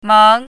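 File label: "meng2", Chinese pinyin, second tone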